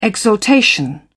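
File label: "exaltation", British English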